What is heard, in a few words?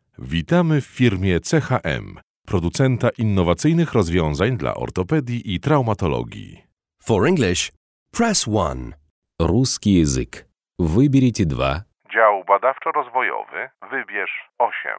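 interactive voice response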